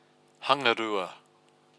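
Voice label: "hangarua", Maori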